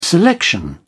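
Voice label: "selection", British English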